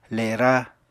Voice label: "lera", Apatani